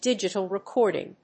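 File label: "digital recording", English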